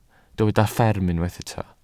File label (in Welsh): "fferm" Welsh